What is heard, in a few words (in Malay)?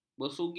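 "bersugi", Malay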